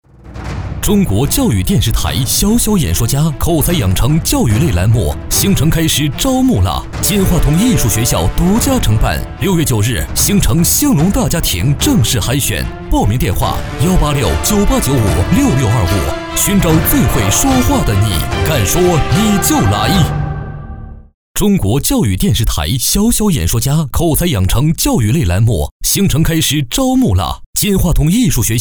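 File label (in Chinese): 国语 男声 广告 大气浑厚磁性 沉稳 积极向上; 小小演说家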